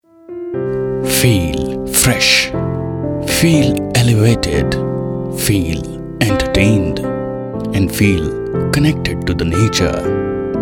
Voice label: Radio English